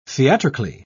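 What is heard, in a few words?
"theatrically", British English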